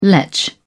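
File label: "letch", British English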